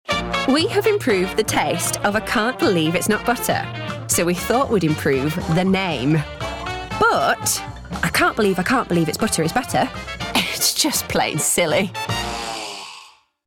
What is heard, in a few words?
Character Read; AdVoice